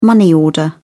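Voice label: "money order", English, British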